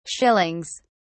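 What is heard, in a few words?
"shillings", British English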